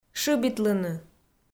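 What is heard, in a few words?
"шыбитлыны", Komi, female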